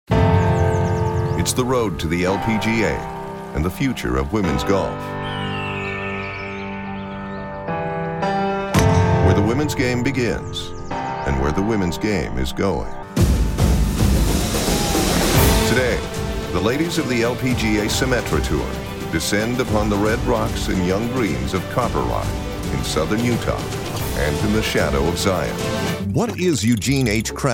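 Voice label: documentary narration; docnarration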